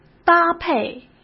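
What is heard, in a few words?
"搭配" Mandarin Chinese; chinese flashcards; hsk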